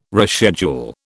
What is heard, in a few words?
"reschedule", British